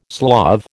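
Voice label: "Slav", American English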